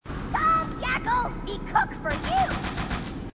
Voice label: grunt; h2dialogue; grunt crazy